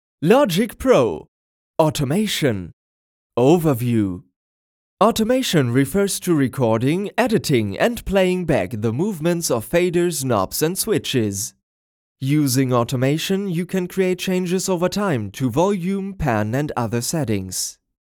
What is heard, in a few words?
e-learning english